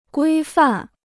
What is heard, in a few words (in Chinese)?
"规范", Chinese